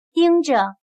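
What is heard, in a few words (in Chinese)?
"盯着", Chinese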